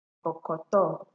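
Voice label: "bokoto", Nigerian Pidgin, female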